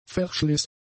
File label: "verschliss", German; adjectives